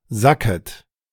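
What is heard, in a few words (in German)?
"sacket", German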